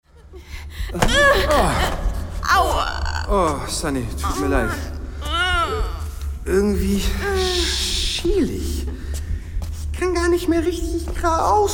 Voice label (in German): Hoerspiel fuer Kinder; Koerperdetektive